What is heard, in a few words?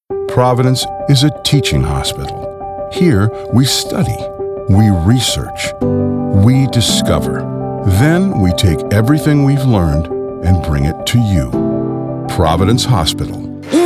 Commercial